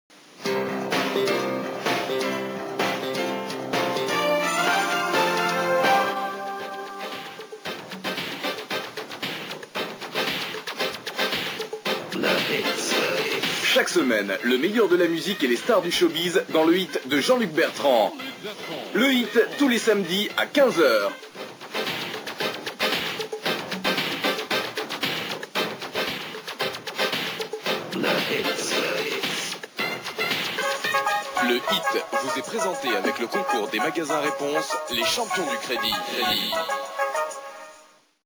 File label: promo; rtl; le hit